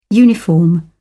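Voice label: "uniform", British English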